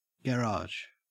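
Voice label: "garage", Australian English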